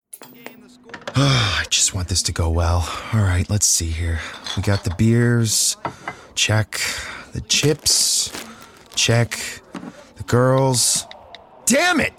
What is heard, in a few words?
Animation